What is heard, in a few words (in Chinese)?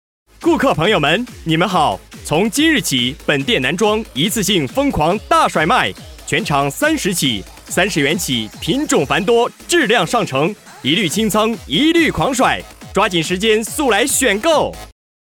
男 促销 男装大甩卖